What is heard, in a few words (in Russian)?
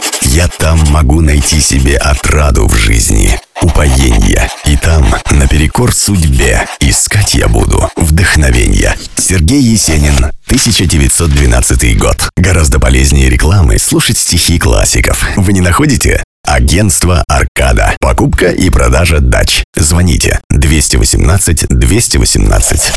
РЕКЛАМНЫЙ РОЛИК; Аркада - Есенин 1